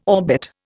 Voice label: "orbit", Indian